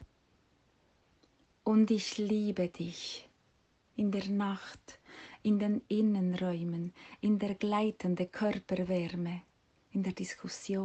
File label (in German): Deutsch